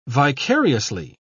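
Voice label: "vicariously", British English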